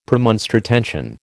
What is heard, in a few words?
"Premonstratensian", American